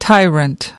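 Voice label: "tyrant", American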